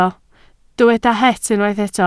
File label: "het", Welsh